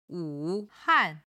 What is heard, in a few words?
"wu3han4", chinese